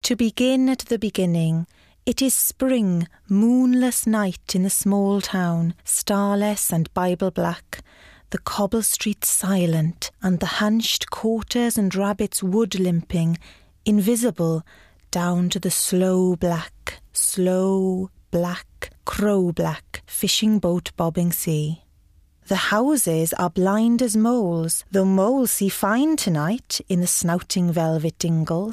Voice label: Narration